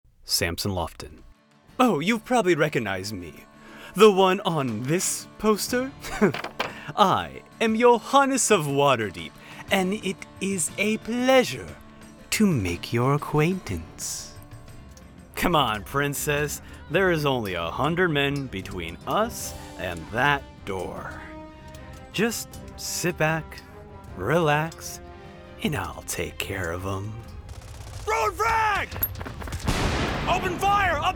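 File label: demo interactive, Voice Over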